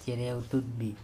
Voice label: bird cEreotutbi, Andamanese